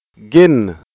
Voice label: genn accent, Wolof